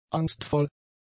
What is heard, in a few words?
"angstvoll", German; adjectives